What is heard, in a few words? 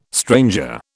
"stranger", British